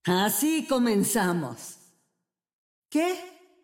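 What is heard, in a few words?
Latin American Spanish; 索杰恩（守望先锋2）; 终极技能; 主动/敌方释放语音; 拉美地区; 西班牙语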